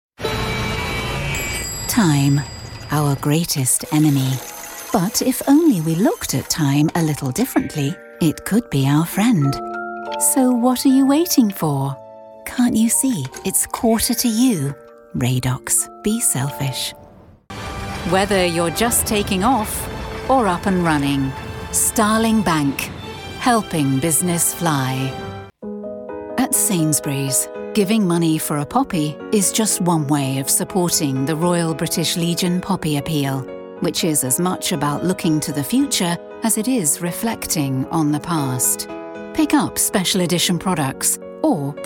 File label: Commercial